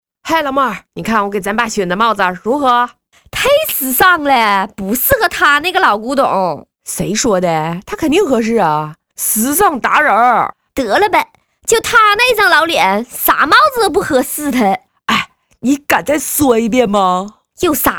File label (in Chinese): 动画 角色 东北方言 一人多角色; 大坨买帽子